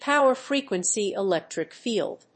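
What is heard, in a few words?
"power frequency electric field", English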